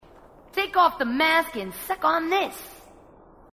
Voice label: female marine, marine tough